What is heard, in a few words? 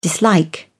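"dislike", English, British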